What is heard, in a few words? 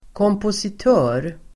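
"kompositör", Swedish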